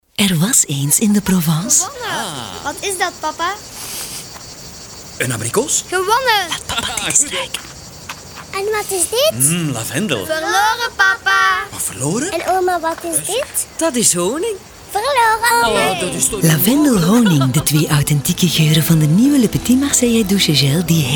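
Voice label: soft, Female Flemish voice over; leise; Le Petit Marseillais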